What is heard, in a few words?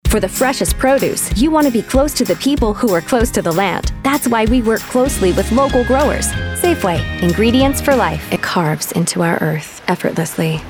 Female Commercial